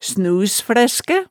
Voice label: "snusflæskæ", hemsedalsmaalet, tale

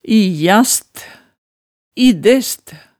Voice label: "ijast", hemsedalsmaalet

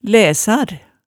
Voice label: "læsar", hemsedalsmaalet tale

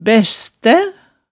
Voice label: "bæstæ", hemsedalsmaalet tale

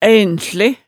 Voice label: "æinhsle", hemsedalsmaalet tale

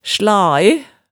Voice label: "slae", hemsedalsmaalet

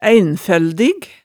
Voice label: "æinføldig", hemsedalsmaalet, tale

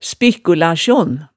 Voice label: "spikkulasjon", hemsedalsmaalet, tale

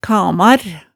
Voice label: "kamar", hemsedalsmaalet tale

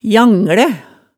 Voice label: "janglæ", hemsedalsmaalet

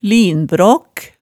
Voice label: "linbraak", hemsedalsmaalet tale

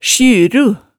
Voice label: "sjyru", hemsedalsmaalet

